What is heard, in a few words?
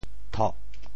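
"thoh4", Teochew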